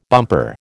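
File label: "bumper", American English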